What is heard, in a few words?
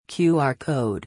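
"QR code", English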